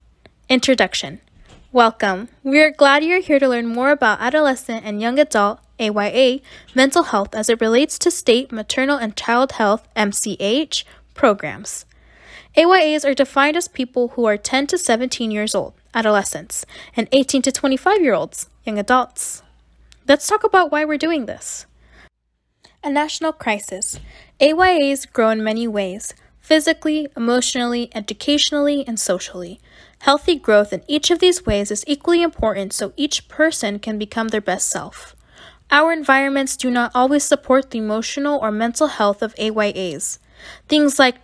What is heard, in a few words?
Voiceover